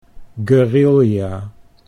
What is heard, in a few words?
"guerilla", Dutch